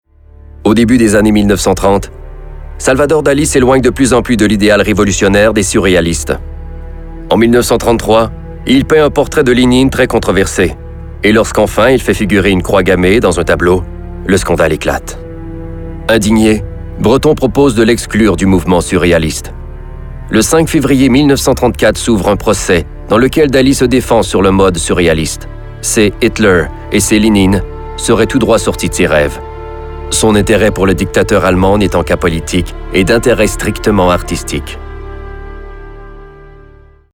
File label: narration